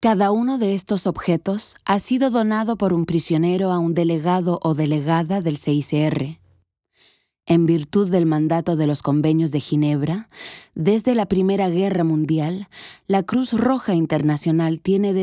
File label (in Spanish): Voix off Espagnol; CICR